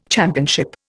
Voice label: "championship", Indian